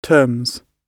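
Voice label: "terms", British English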